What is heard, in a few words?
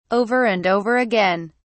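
"over and over again", British English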